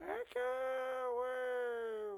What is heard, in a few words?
Pig Chef, Voices